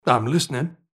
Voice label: Hear It, Speech; Civ5; Washington; Neutral

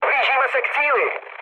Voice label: near waypoint, speech cze, mood low; War Thunder

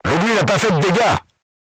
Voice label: voice message armor not pierce, crew tanks french

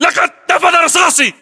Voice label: inform reloading generic, english battlechatter

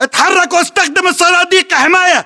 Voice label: order cover boxes, battle chatter, english

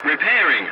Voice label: voice message repairing, english; War Thunder

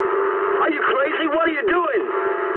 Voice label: eng player killed ally mood high, speech eng

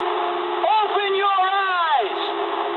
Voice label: eng player friendly fire mood low, speech eng

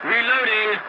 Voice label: voice message reloading, english; War Thunder

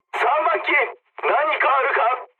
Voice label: jp chat, speech jp

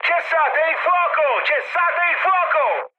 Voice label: player friendly fire mood high, speech it

